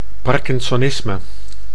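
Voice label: "parkinsonisme", Dutch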